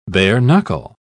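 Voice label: "bare-knuckle", British English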